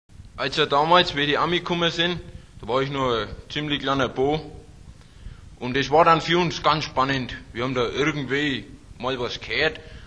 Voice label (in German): Ostfraenkisch; Sprachbsp; Oberdeutsch